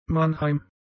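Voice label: "Mannheim", German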